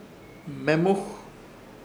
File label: "Hmemuj" blando, Ishir Chamacoco